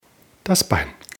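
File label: "bein", German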